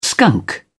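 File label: "skunk", British English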